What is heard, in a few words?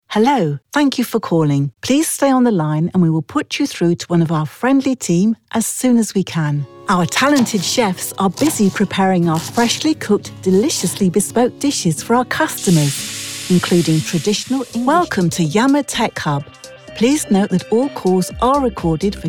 IVR Telephone on-hold